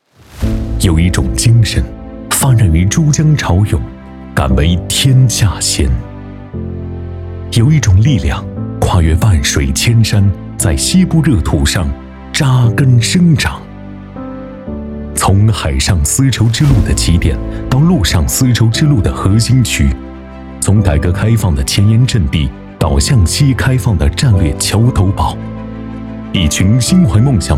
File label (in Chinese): 男, 宣传片; 潮涌天山 粤启新章